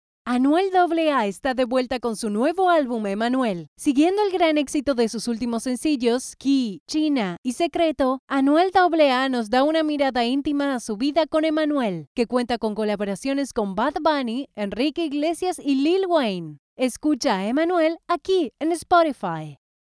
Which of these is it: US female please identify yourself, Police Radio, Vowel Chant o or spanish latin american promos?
spanish latin american promos